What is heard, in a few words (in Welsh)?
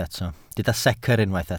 "secr" Welsh